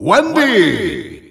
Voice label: Dutch Announcer; Wendy; SSBU